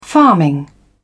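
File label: "farming", British English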